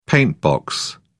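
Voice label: "paintbox", British English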